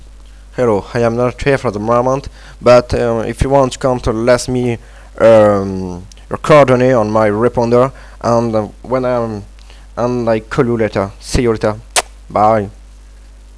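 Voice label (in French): Répondeur en anglais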